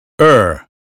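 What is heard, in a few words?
"mother", American English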